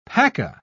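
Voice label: "paca", British English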